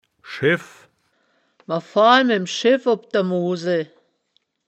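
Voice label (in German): "scheff", wittlicher woerterbuch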